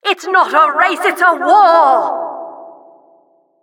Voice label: Announcer race general